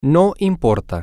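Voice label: it doesnt matter, Spanish, male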